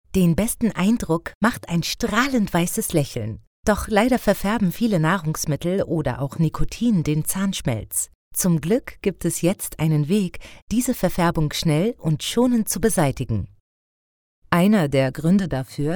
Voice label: DE medical